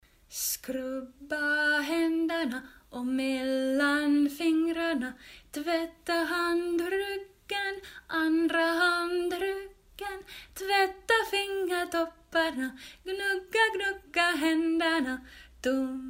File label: Swedish Audio